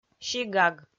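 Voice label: "сигаг", Komi, female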